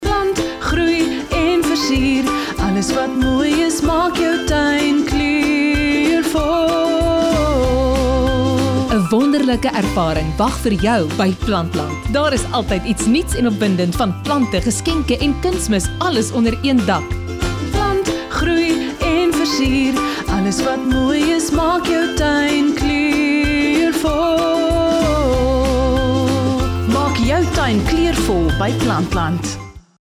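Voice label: AFRIKAANS, RADIO ADVERTISEMENTS; PLANTLAND; VOICE-OVER WORK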